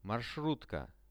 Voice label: "marshrutka", Russian; маршрутка; transport; RUSSIAN VISUAL DICTIONARY